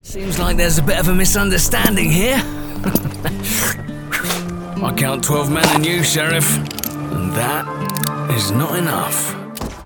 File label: Cocky Villain Western, French Voice Actor